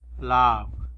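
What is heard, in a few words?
"sandal", Tlacolula Zapotec; lab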